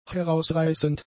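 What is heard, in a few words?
"herausreißend", German; adjectives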